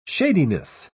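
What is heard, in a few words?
"shadiness", British English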